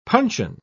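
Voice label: "puncheon", British English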